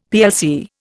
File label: "plc", Indian; Dictionary